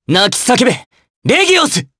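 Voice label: voice Skill, voices heroes, Japanese; Theo; kingsraid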